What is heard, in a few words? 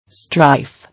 "Strife", English